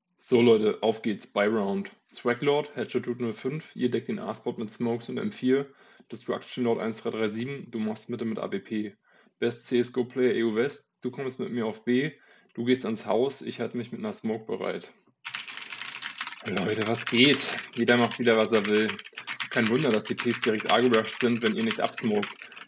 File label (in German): Testaufnahme Logitech G435 Gaming Headset Lightspeed